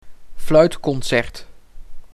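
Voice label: "Fluitconcert", Dutch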